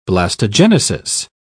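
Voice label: "blastogenesis", British English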